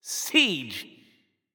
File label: Announcer Mode Siege; Jester; Paladins